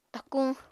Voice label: "takuñ", Apatani

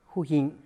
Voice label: "muicle", teotitlan

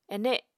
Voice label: "ene", Apatani

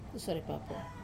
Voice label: "sore papo" saliva, Wamesa

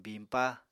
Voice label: "biñpa", Apatani

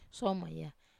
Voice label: "soma", Wamesa

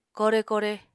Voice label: "kore-kore", Apatani

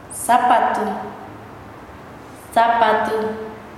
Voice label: "sabado", Nasa Yuwe

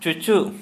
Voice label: Santali Vagina

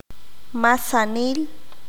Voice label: "todo", Q'anjob'al

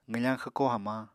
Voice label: "qwfañ xwko hamañ", Apatani

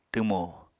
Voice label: "twmo", Apatani

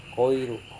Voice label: "koiru", Serewen

